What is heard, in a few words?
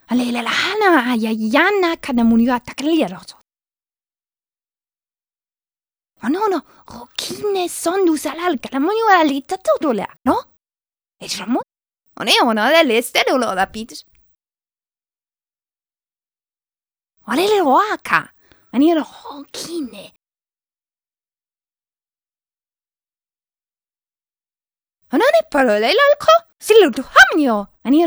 talk gossip; Singles Flirt Up Your Life